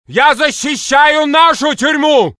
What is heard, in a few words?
Russian; mohaa; dialogue